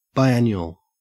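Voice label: "biannual", Australian English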